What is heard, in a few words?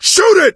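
battlecry tank, male; Left 4 Dead 2; coach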